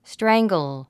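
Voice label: "strangle", English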